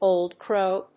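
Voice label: "Old Crow", English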